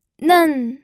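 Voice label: "nen", fourth tone, pinyin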